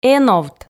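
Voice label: "эновт", Komi, female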